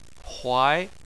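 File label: "huai", Chinese, second tone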